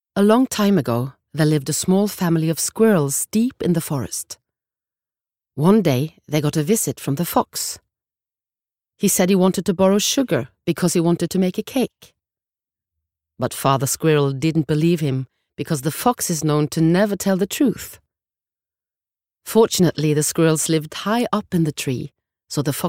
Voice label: no childrens book english

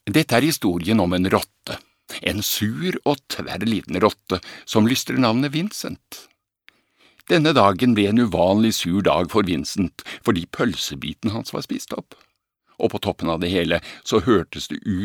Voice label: no dub narrator

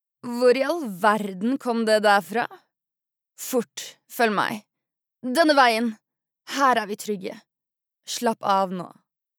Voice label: Norwegian dub, female

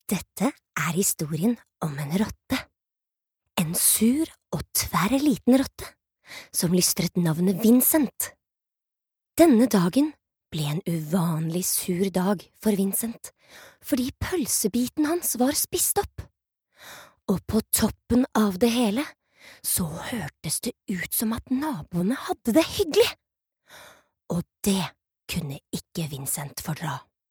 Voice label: no childrens book

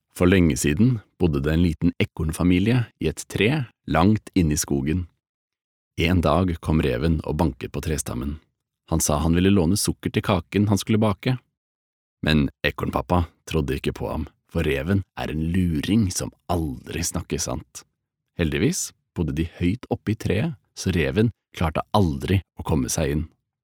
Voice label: no childrens book

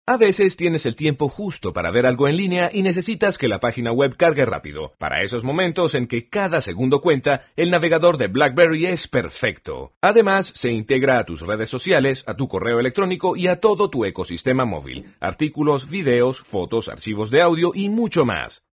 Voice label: spanish latin venezuelan corporate

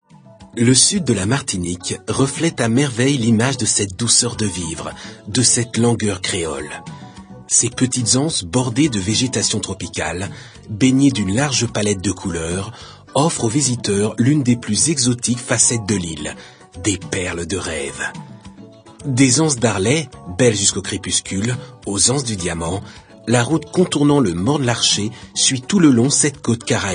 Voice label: fr tourist narration

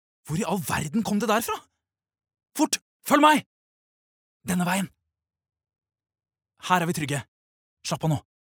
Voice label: Norwegian dub, male

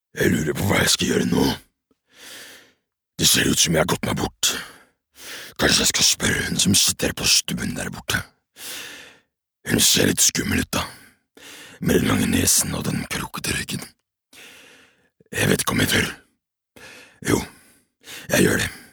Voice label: no character bad guy

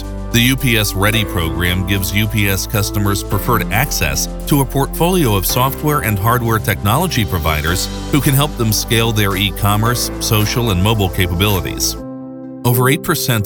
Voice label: us corporate industrial narration

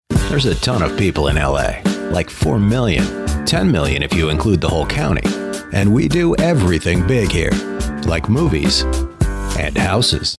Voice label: US commercial, male; english-us

